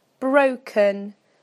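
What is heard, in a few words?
"broken", British English; PictureDictionary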